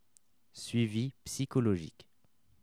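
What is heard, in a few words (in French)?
"suivi psychologique" French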